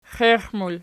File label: xiexmul nom, archi